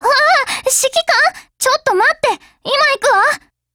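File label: loading, Japanese; Grizzly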